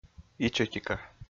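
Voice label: "ичӧтика", Komi, male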